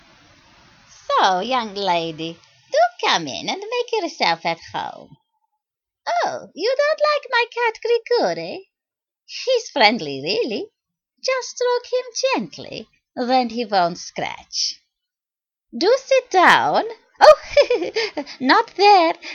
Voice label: Transylvanian Witch

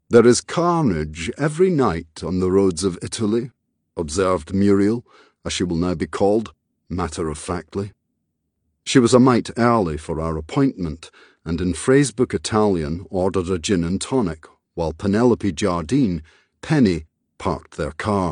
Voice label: Audiobook; Appointment in Arezzo

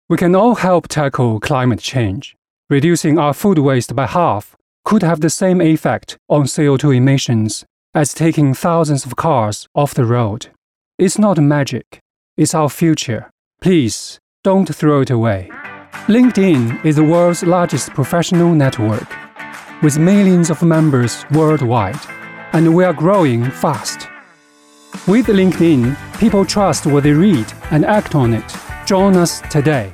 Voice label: Commercial English

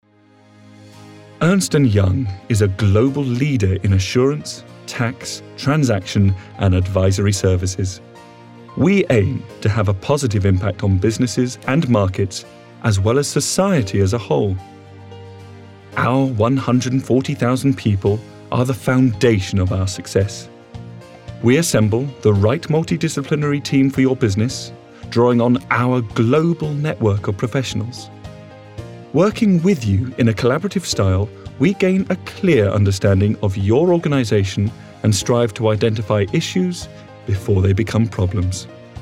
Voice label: Corporate

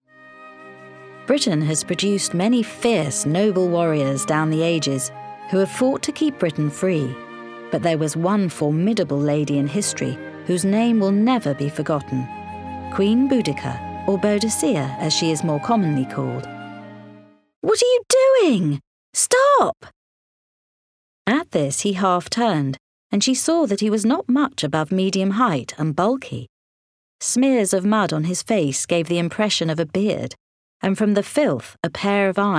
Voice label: Narrative

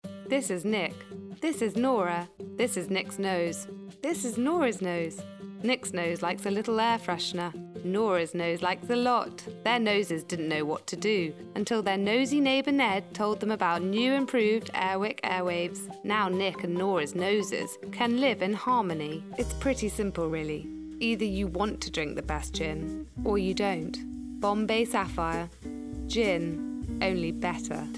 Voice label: Voiceover

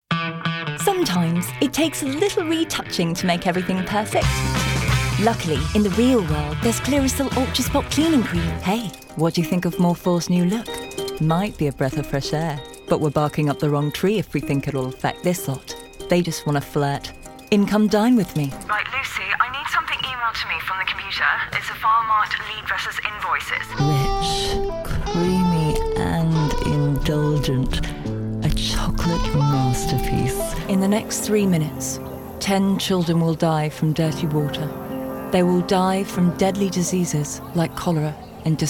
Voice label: Female Commercial Montage UK

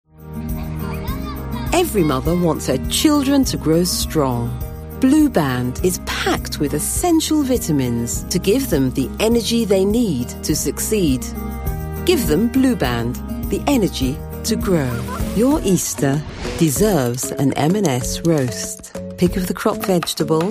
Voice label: Commercial Voice

